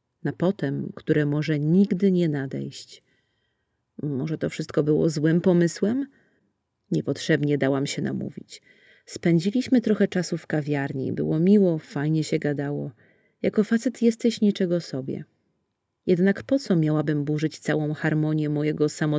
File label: Audiobook